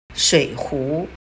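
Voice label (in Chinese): "水壶", Chinese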